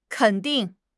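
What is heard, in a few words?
"ken3 ding4", Mandarin Chinese; HSK4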